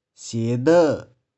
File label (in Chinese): "shie1deu1", 玉環